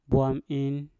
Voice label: "bwam in" kutu, Mpur

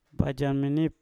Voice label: "bajam minip" ipar laki-laki, Mpur

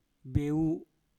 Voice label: "beu" dalam, Mpur

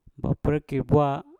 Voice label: "baprekkir bwa" telur kasuari, Mpur

